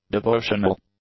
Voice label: "devotional", english; adjectives